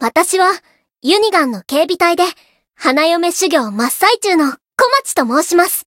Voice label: story, jp; komachi